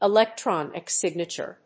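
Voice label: "ELECTRONIC SIGNATURE", English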